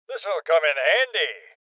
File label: Voices Loot Collect Male Old